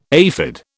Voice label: "aphid", British